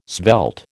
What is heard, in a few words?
"svelte", American